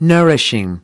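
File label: "nourishing", English; UNIT 5: HEALTHY LIVING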